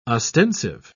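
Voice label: "ostensive", British English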